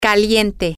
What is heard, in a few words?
hot things, spanish, F